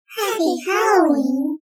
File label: Happy Halloween Cute Voices